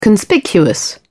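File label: "conspicuous", English, British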